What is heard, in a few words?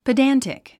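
"pedantic", English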